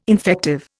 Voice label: "infective", Indian

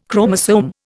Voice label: "chromosome", Indian

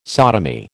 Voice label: "sodomy", American

